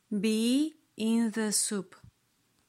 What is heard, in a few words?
be in the soup pronunciation slow; Best English Nitra